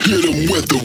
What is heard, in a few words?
Vocal Phrase Male "Hit Em With The"